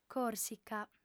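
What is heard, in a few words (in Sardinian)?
"còrsica", female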